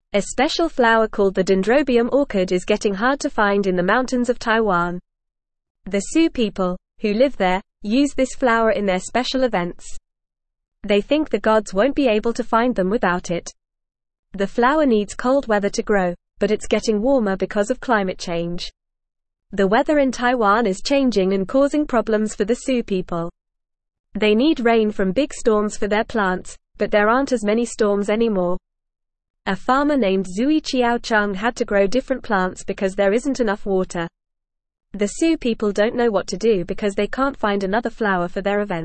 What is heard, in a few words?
English Newsroom Lower Intermediate FAST Reading; The Special God Flower Help Us Save It